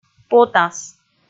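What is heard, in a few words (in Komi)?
"потас" Komi, female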